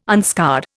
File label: "unscarred", Indian